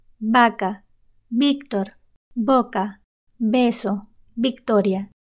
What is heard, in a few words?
"vaca", Spanish